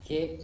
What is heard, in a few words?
"cEp", Andamanese; mangrove